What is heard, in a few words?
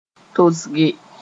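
"tosge", Mooré; to drip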